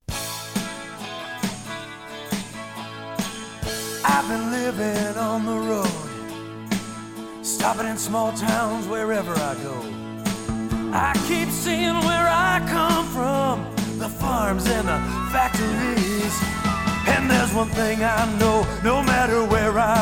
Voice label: Radio Commercials Beer; Pabst Blue Ribbon

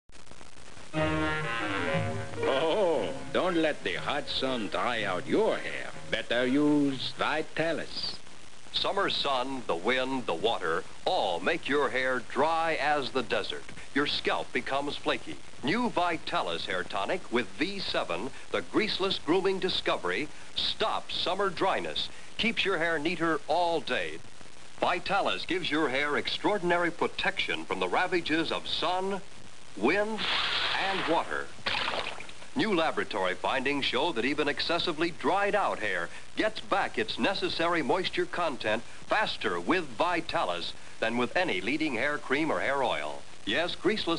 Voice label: commercial, A Talking Camel; Vitalis hair tonic

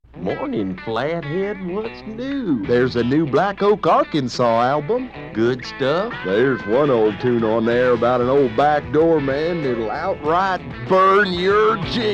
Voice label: Radio Commercials; 1975

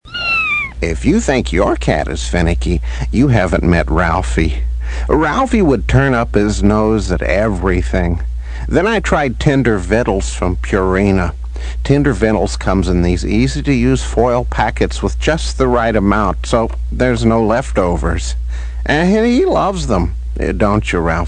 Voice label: Radio AD; Motor City Radio Flashbacks; Purina Tender Vittles

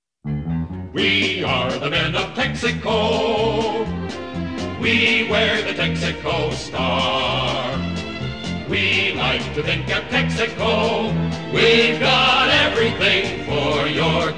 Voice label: TV Commercials Theme; Texaco

